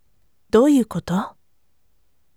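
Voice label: voice, firm; douiukoto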